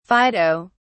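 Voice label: "fido", English, British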